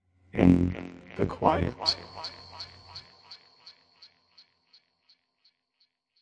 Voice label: processed male vox poetry bits